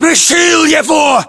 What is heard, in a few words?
inform killfirm infantry, battlechatter ru